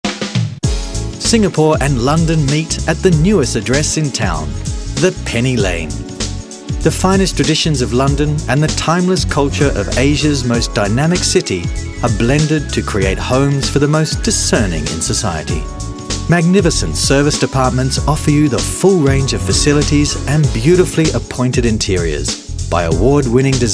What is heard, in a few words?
AU English, Australian, Male; PropertySpot